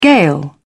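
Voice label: "gale", British English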